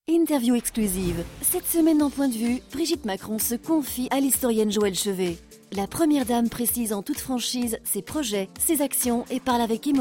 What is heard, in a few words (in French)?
Français; Point de Vue